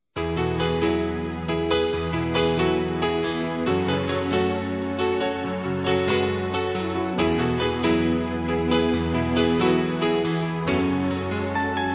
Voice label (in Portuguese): NETA F